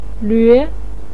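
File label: "lve", Mandarin Chinese second tone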